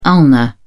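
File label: "ulna", British English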